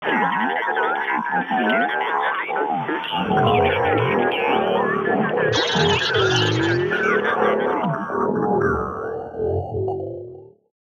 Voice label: RADIO VOX; eShop